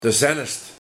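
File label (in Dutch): "Te zennest", dialect; Bij hem thuis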